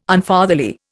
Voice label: "Unfatherly", Indian